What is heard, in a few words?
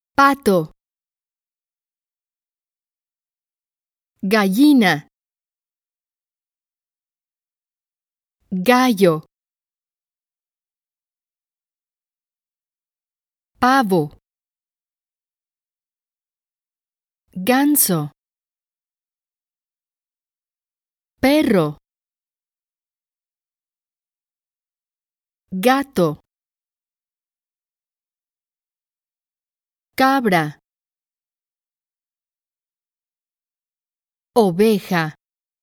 animals spanish